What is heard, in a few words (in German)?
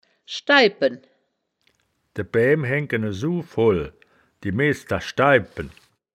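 "schdai bpen", wittlicher woerterbuch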